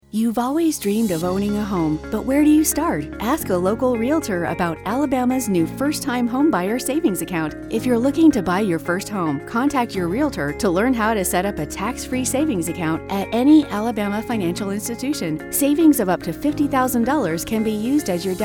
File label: realtors radio spot